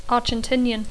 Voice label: "Argentinian", English

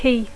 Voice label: "key", English

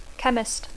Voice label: "chemist", English

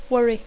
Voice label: "worry", English

